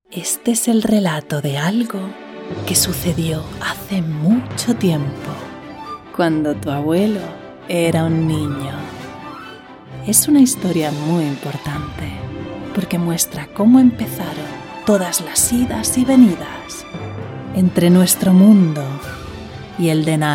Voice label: Spanish (Castilian) NARRATOR WONDERING MOVIE TRAILER, Female